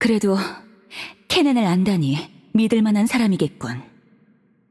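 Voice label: voice over, Korean; Yunara Intro; bloom minigame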